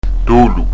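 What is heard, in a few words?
"dolu", Corsican; Son "appuyé"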